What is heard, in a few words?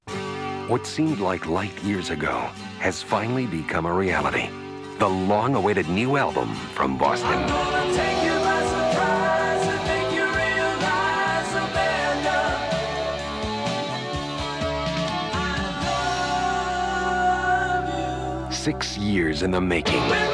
Radio Commercials